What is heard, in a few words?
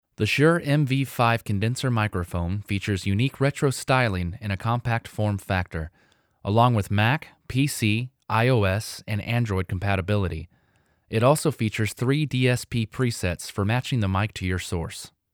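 Vocal Shure MV5 Voice; usb mic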